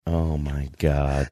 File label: quietly saying oh my god